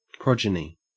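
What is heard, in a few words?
"progeny", Australian English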